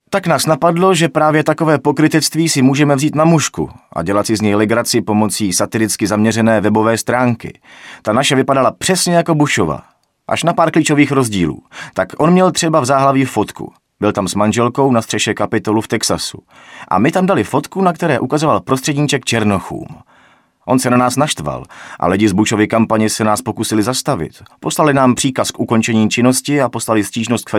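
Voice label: Male Czech drama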